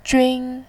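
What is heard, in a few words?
"jun", Mandarin, first tone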